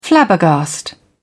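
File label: "flabbergast", British English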